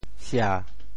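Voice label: "sia7", Teochew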